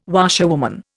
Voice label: "washerwoman", Indian

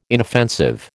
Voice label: "inoffensive", American

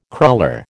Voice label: "cruller", American

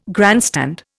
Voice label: "grandstand", Indian

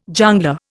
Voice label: "jongleur", Indian

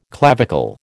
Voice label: "clavicle", American

